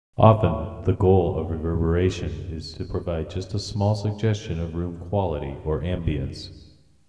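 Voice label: Speech, large, reverb time 1.0 seconds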